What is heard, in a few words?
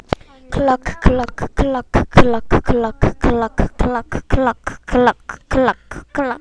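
"clock", spoken word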